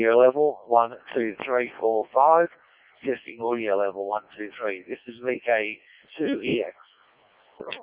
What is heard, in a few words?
decoded speech, parrot